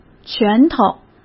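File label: "拳头", Mandarin Chinese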